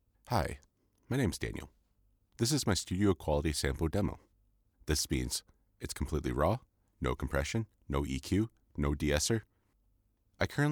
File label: Raw Studio Sound; IMAscore